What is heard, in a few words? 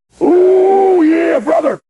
happy; smasher; fertig classic games